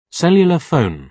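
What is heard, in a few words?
"cellular phone", British English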